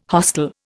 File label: "hostel", Indian